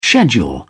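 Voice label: "schedule", British English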